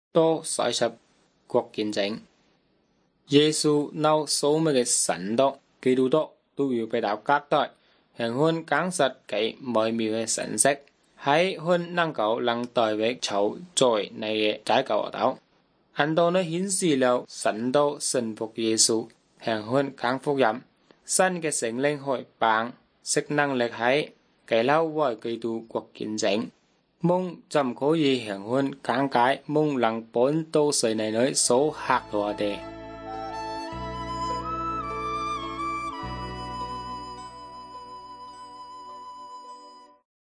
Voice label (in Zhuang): good news zhuang nanning fushu